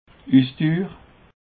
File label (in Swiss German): "ussdur", Alsace